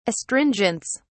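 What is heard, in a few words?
"astringents", British English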